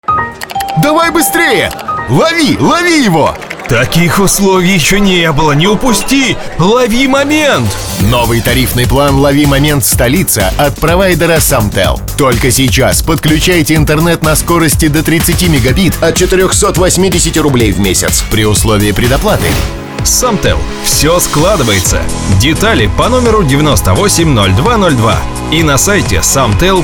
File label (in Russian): ролик интернет провайдера; САМТЕЛ